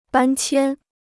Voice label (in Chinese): "搬迁", Chinese